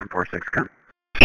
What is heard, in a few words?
Police Sheriff Dispatch